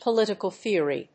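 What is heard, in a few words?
"Political Theory", English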